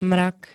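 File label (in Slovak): "mrak", Slovak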